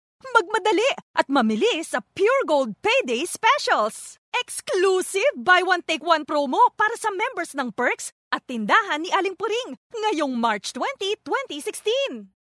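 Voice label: Tagalog Female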